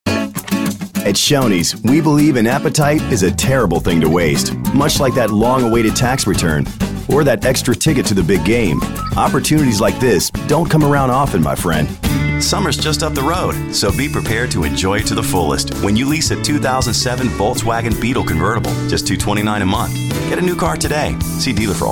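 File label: Commercial, Voiceover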